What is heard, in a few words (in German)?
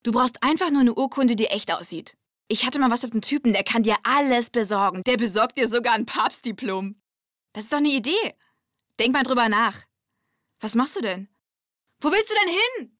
synchron, Lip-Sync (Synchron)